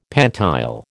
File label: "pantile", American